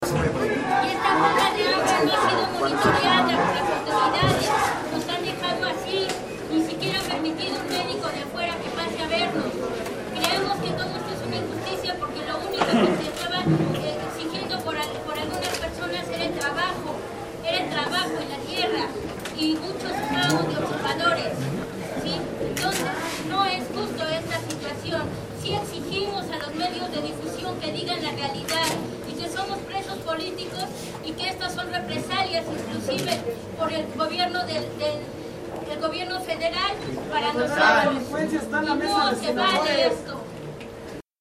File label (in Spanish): mujer médicos; presas del penal de santiaguito